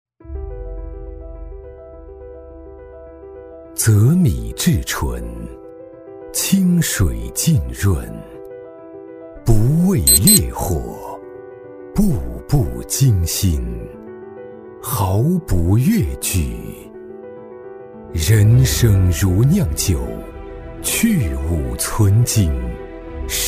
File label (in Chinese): 男, 酒广告, 质感; 中英双语; 大气浑厚